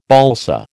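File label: "balsa", American English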